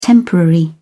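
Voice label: "temporary", British English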